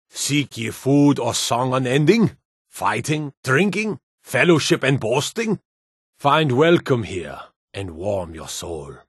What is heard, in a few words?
Male Nord Commander